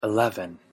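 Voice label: "eleven", English pronunciation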